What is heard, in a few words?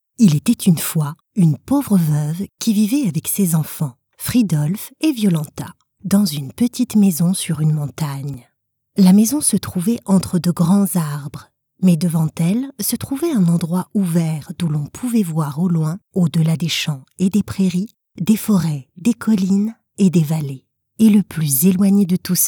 french audiobooks